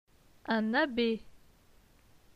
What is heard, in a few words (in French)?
"un abbe", francais; phonetique; les consonnes